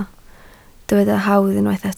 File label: "hawdd", Welsh